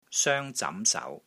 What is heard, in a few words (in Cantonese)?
"SOENG1 ZAM2 SAU2", Wing Chun; Wing Chun Elements Glossary